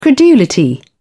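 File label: "credulity", British English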